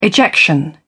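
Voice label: "ejection", British English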